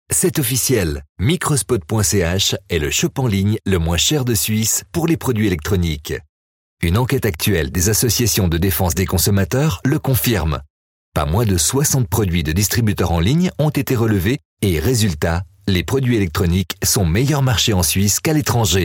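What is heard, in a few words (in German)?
Werbung, French, Swiss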